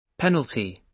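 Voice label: "penalty", British English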